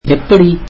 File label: tamil how